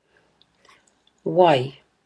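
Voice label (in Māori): "wai", Māori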